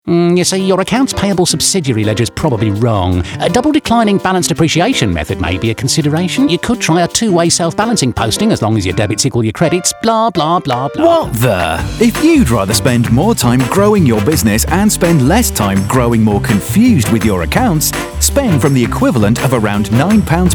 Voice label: Radio